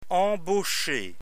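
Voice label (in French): "embaucher", French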